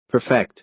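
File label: "perfect", American English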